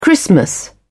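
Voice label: "christmas", British English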